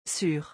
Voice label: "sur", French

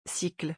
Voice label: "cycle", French

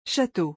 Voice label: "château", French

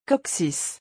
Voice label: "coccyx", French

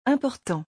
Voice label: "important", French

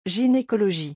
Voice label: "gynécologie", French

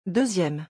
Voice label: "deuxième", French

